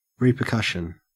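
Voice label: "repercussion", Australian English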